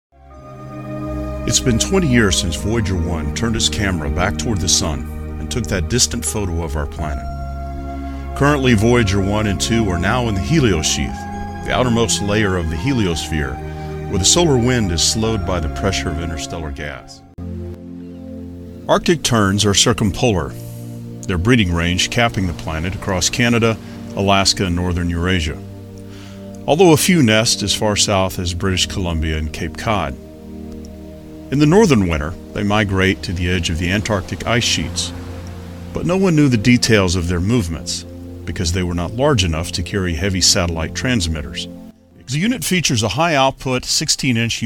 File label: narration